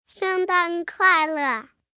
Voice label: Mandarin Xmas, 1 boy, 4 yrs., Mandarin, (Happy Christmas), human voice; Occasions, Foreign; Voice Box